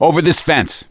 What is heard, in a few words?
misc directional, voice; gambler